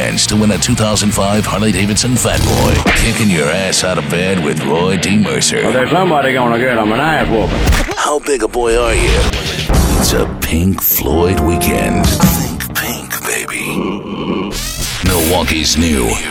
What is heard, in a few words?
classic rock, radio imaging